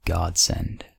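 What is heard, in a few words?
"godsend", US English; pronunciations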